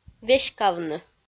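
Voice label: "веськавны", Komi, female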